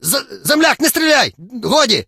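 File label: surrender, Ukrainian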